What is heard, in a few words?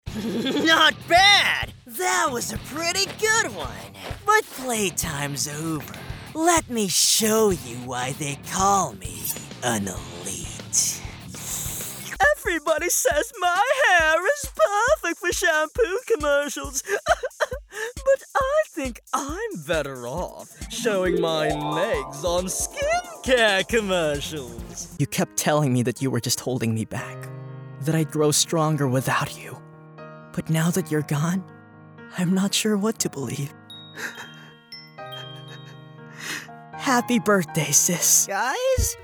Character